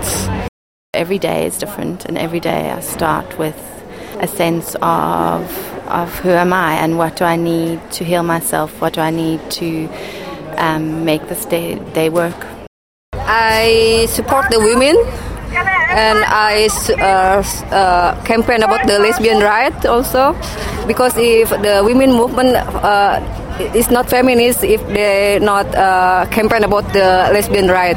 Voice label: feminism vox pops; AWID; participants; freedom fone